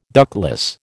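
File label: "ductless", American English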